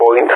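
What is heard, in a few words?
"point", spoken; Ka-50; Digits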